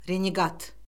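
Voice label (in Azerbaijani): "reneqat", Azerbaijani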